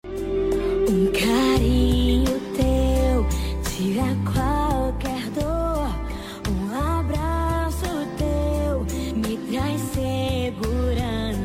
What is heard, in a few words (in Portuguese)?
dia dos pais feminina gospel; evangelico voz